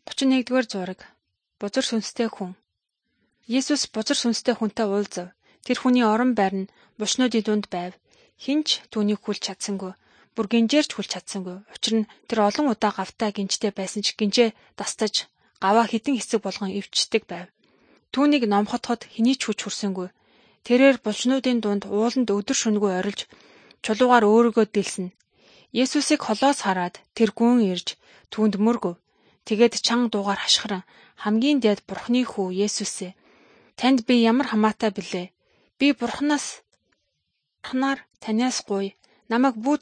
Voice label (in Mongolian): good news mongolian